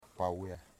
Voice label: "red", Wamut

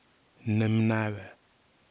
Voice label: "nɨmnave", Kwamera

